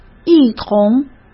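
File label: "一同", Mandarin Chinese; chinese-flashcards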